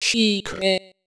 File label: cikre, espeak-ng; jicmu gismu